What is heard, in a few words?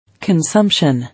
"Consumption", English